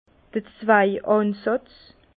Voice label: "zweigansatz", Bas Rhin; vin; porte greffe

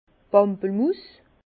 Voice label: "pampelmuss", Bas Rhin; vin; pamplemousse

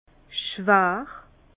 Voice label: "schwar", Bas Rhin; vin; lourd

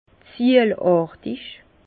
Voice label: "ziejartig", Bas Rhin; vin; tuilé

